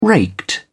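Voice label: "raked", British English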